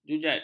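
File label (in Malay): "jujat", Malay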